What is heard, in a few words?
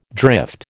"drift", American